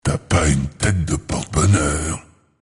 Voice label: Demon Hunter Morphed Pissed; Warcraft 3